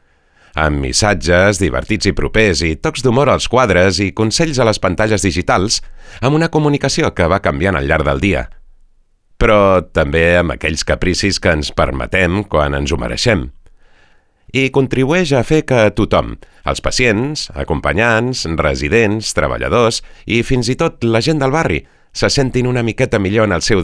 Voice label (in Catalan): PRESENTACIO Amable Catala VO